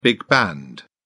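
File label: "big band", English, British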